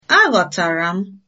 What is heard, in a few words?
understand, igbo